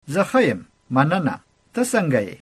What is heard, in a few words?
fine, pashto